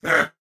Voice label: Attack, Corpus Venus Male